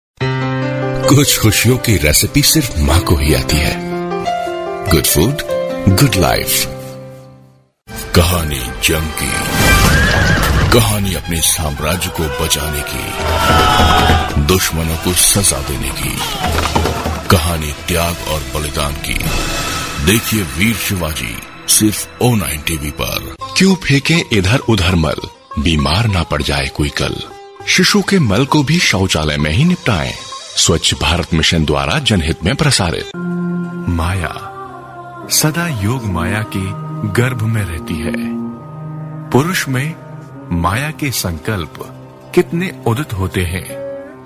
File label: male